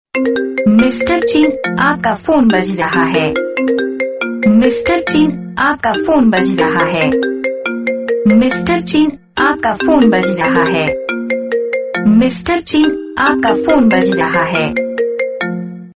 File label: ringtone voice